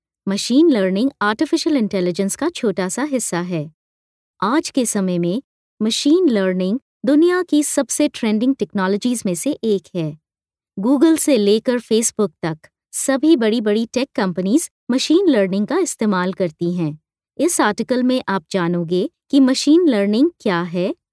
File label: hindi e-learning